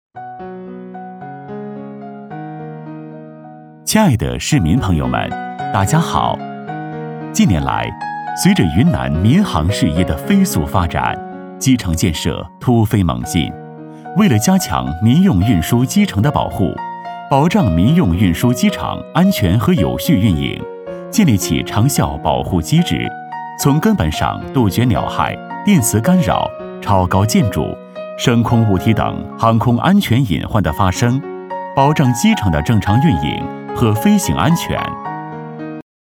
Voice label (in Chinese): 男, 课件; 云南民航